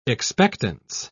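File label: "expectance", British English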